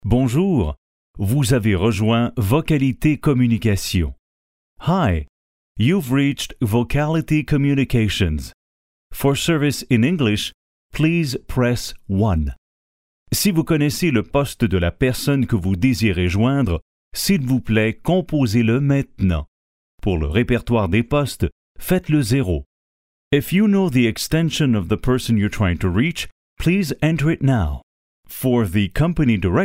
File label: French Canadian